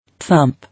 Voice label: "Thump", English